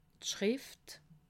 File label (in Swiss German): "schrift", alsace